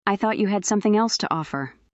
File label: fem